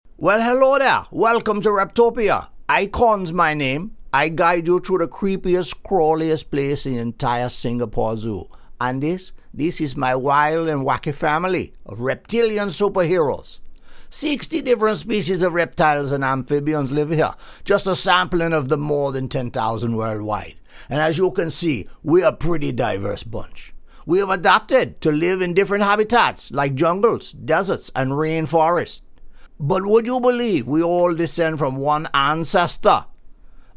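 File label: Caribbean, Character, Cartoon, Caribbean Accent, male voice; Singapore Zoo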